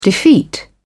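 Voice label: "defeat", British English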